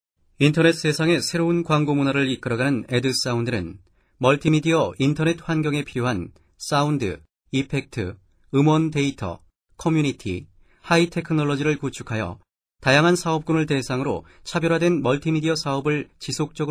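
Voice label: Korean male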